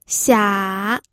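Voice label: "xia3", Mandarin Chinese pinyin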